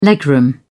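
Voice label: "legroom", British English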